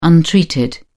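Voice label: "untreated", British English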